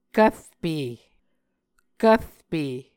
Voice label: "kvrpe", muscogee

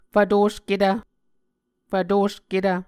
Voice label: "fvtosketv", muscogee

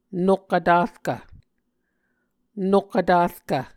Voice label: "nok vtarkv", Muscogee

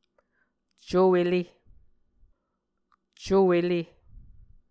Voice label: "co-ele", muscogee

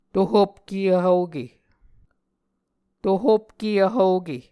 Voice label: "tohopke vhvoke", Muscogee

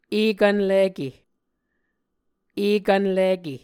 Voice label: "ekvn like", muscogee; Mvskoke Words with Voicing Consonants; Martin, Jack B., and Margaret McKane Mauldin. 2023. A Dictionary of Creek/Muskogee; SIL International

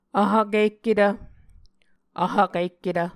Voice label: "vhvkihketv", Muscogee

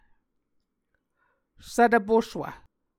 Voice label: "svtv puswv", Muscogee

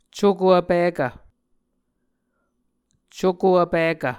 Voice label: "cuko vpikv", Muscogee

